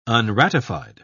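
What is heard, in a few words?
"unratified", British English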